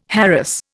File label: "harass", Indian